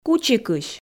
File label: "кучикысь", Komi